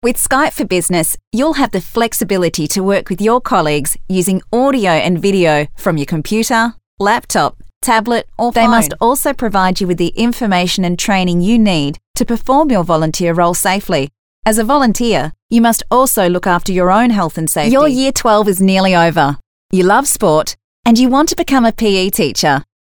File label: Natural